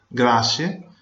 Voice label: thanks, venetian